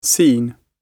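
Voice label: "seen", British English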